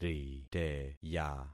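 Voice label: "riteya", concatenated syllables; Affixing experiment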